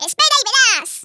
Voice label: "I'll get you", Hispanic; Worms Armageddon